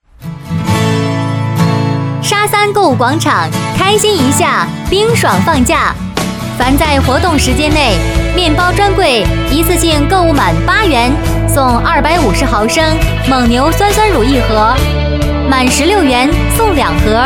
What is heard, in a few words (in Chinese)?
女 广告 购物广场开心